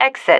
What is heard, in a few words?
"exit", female